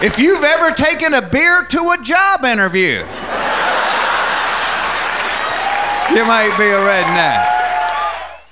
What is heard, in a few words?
startup, speach